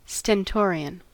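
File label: "stentorian", US English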